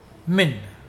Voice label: "clean", Mokilese